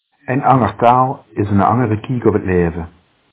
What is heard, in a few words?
limburgian